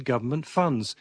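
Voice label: govMment funS, Standard Southern British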